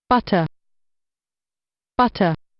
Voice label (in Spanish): butter pronunciacion